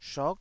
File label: "shot9", taiwanese